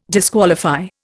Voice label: "disqualify", Indian